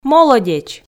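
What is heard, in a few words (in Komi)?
"молодеч", Komi, female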